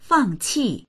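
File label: "fang4qi4", Mandarin Chinese; 放弃; 放棄する、あきらめる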